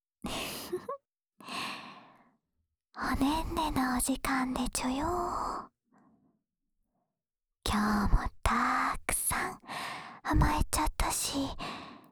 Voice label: 安眠用 添い寝 ループ （左耳

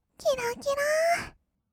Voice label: きらきらー, kawaii voice; ASMR; 色々なワンショット; 1200 kawaii voice!!

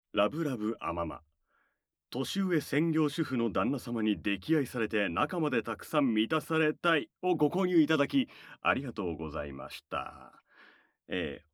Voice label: フリートーク

